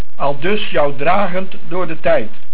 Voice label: Dragend, Dutch

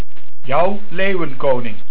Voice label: "Jouw", Dutch

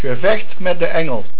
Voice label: "Engel", Dutch

